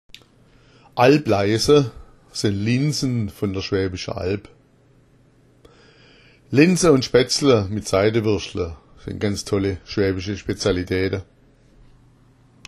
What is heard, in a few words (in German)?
alblaisa, schwaebisch; Alb-Leisa sind Linsen von der Schwäbischen Alb.